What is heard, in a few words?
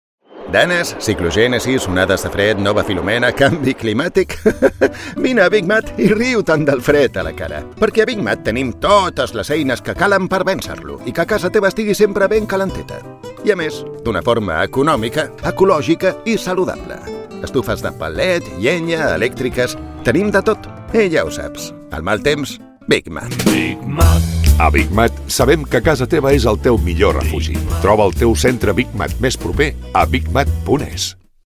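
Catalan, commercial; GoLocalise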